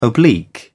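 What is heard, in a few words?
"oblique", British English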